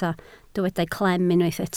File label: "clem", Welsh